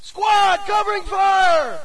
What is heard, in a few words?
us cover, player; Source Engine; dod